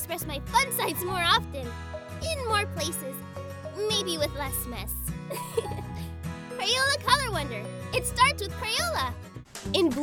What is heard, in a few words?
Commercial